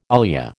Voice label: "ilia", American